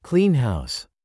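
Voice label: "clean house", English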